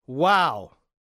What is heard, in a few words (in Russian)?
muzhchina; мультяшные звуки wow